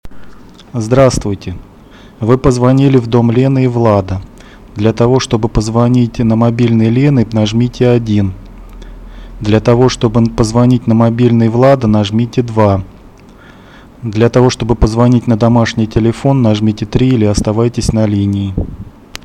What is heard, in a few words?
ivr